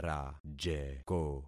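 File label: "rajeko", concatenated syllables; Affixing experiment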